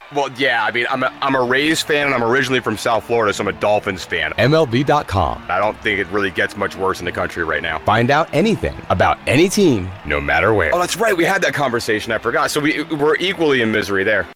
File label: spot; MLB